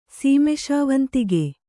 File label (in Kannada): "ಸೀಮೆಶಾವಂತಿಗೆ", Kannada